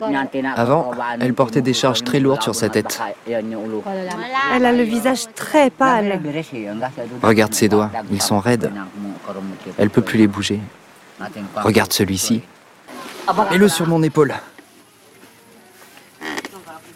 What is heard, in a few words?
ADR; Chaman